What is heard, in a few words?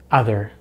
"other", American English; Vowels in American English; easypronunciation